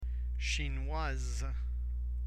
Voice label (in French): "chinoise", french